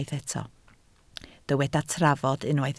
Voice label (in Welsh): "trafod", Welsh